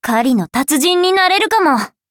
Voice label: upgrade, ja